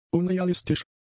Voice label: "unrealistisch", German; adjectives